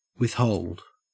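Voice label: "withhold", Australian English; pronunciations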